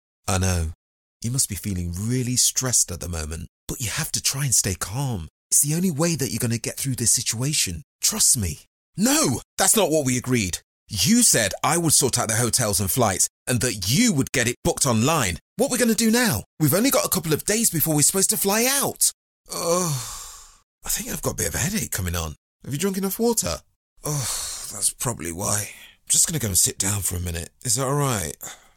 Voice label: conversational voice, voiceover